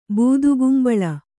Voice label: "ಬೂದಗುಂಬಳ", kannada

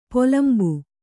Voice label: "ಪಲುಂಬು", Kannada

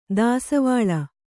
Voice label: "ದಾಸಿವಾಳ", Kannada

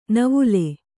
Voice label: "ನವಿಲೆ", Kannada

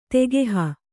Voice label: "ತಗಹು", Kannada

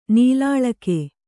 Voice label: "ನೂಲೆಳೆಗ", Kannada

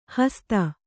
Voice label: "ಹಸಿತ", Kannada

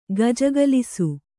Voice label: "ಗೆಜ್ಜೆಗೊಲಸು", Kannada

